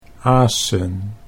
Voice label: "asen", Dutch